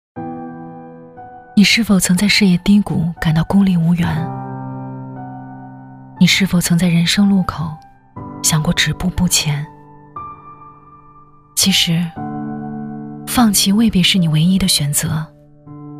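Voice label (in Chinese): 女国大音-微电影广告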